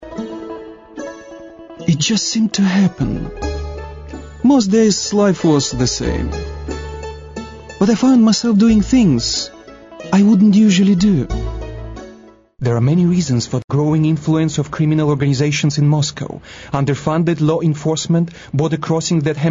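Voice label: Russian English, male, narration; english in foreign accent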